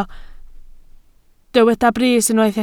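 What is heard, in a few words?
"brys" Welsh, spoken word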